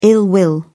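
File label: "ill will", British English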